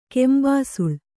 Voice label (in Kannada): "ಕೆಂಬಿಸಿಲ್", Kannada